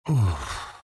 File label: happy; skywrath mage